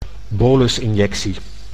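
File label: "bolusinjectie", Dutch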